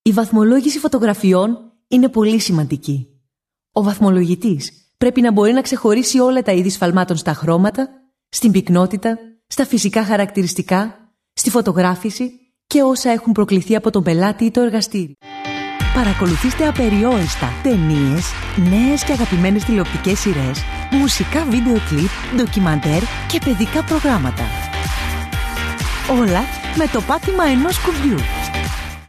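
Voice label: Greek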